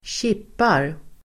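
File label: "kippar", Swedish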